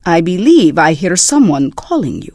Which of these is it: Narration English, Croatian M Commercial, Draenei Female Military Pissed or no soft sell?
Draenei Female Military Pissed